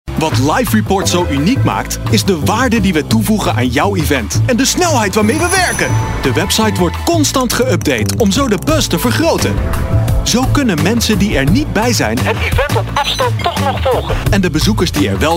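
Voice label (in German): Dutch werbung, voicesamples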